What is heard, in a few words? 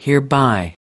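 "hereby", American English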